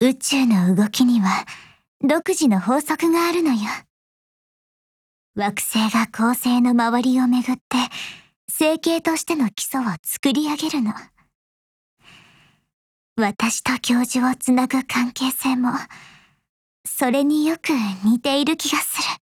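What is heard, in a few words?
OATH, Japanese; hubble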